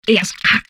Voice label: humanoid female; Stellaris; humanoids